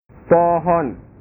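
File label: "pohon", Indonesian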